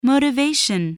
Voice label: "motivation", voice, spelling

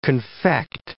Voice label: "confect", English

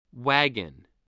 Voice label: "wagon", spelling

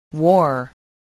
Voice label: "war", spelling

